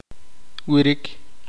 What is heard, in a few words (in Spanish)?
"dormir", Poqomchi